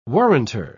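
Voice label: "warranter", British English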